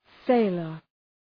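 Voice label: "sailor", English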